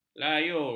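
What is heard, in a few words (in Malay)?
"layur", Malay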